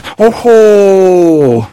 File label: negative vocalization; voice packs; sniper